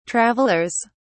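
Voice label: "travellers", British English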